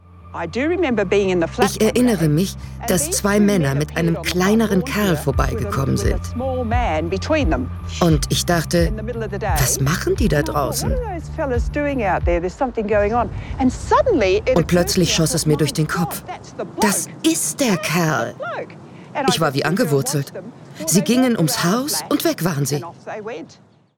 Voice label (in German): Voice Over, frauen; The Night Caller